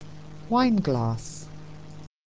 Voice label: "wineglass", English

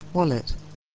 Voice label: "wallet", English

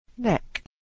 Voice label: "neck", English

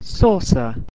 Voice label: "saucer", English

